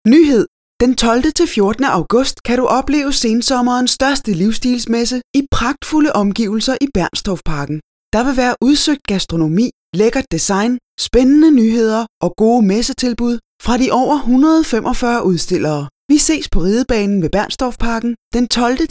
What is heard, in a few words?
radiospot